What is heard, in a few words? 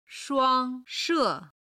"shuang1 she4", chinese